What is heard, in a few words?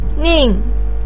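"nin" fourth tone, Mandarin Chinese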